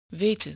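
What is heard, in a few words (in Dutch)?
"weten", Dutch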